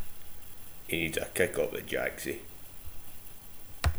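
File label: "jacksie", slang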